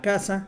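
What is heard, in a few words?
"casa", Mexican Spanish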